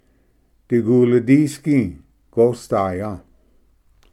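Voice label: "digulidisgi gosdaya", Cherokee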